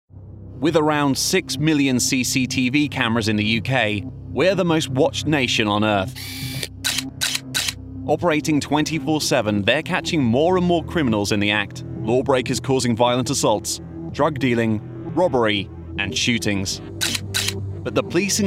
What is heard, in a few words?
Documentary; CCTV Caught On Camera; Vocal Point